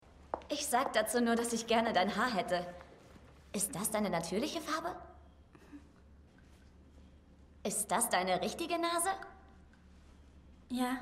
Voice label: Synchron, Kino; The Neon Demon; Rolle: Gigi

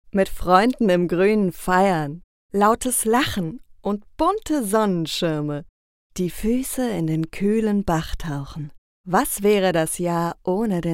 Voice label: Werbung; Sommersekt

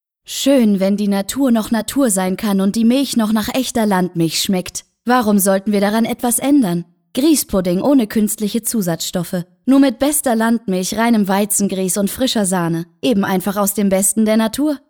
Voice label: Werbung Griesspudding; Loftstudios

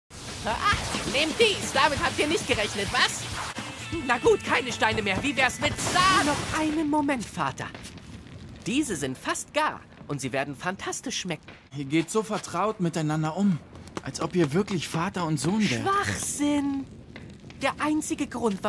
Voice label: Stimmprobe jung; Samurai kings

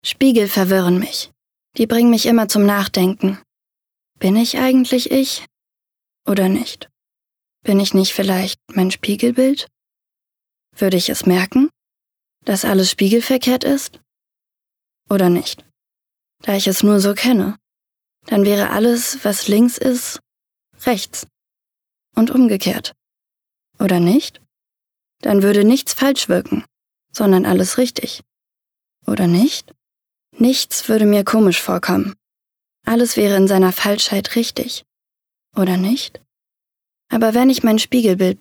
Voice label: Innerer Monolog

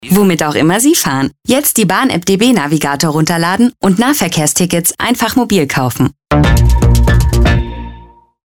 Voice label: Werbung; DB Navigaor App

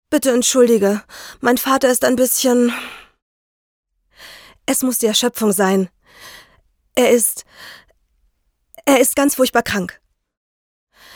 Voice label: Synchron

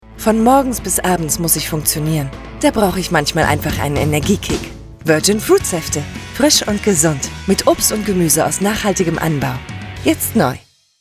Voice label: Werbung; VirginFruits

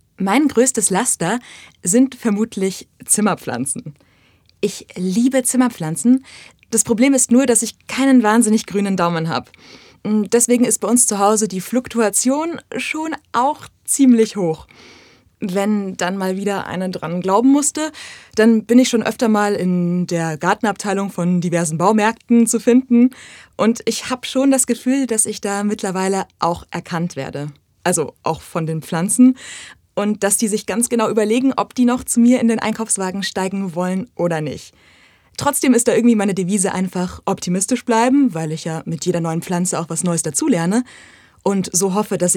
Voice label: Natuerliche Stimme

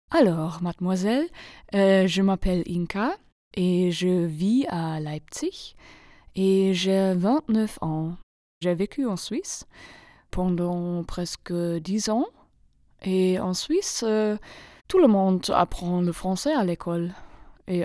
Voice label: Franzoesisch

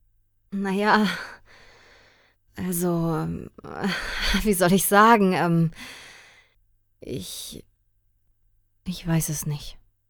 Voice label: verlegen

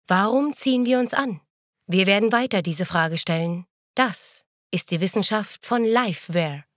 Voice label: Werbung; Lifeweare

